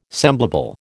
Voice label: "semblable", American